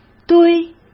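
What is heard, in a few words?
"堆", Mandarin Chinese; chinese-flashcards; hsk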